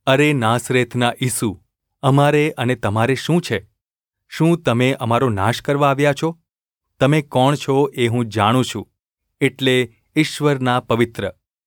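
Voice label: gu ulb mrk, Gujarati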